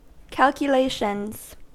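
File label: "calculations" English, American accent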